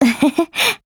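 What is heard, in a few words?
MOOD, Japanese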